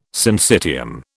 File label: "syncytium", British